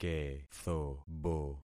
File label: "kethobo", concat syllables; Affixing experiment